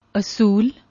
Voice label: "asool", Punjabi; ਅਸੂਲ